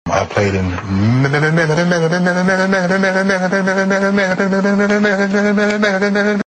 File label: stutter lawnmower; vine